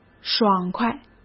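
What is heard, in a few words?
"爽快", Mandarin Chinese; chinese flashcards; hsk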